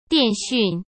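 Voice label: "电讯" Mandarin Chinese; hsk; chinese-flashcards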